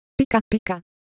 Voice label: Japanese Color Visual, Ideo Phones

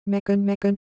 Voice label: Korean Texture; Ideo Phones

